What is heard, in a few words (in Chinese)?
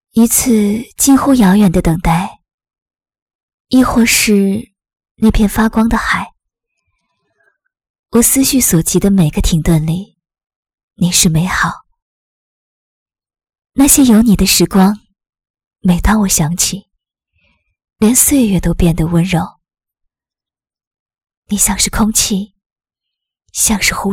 旁白